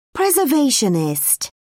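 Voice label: "preservationist", British English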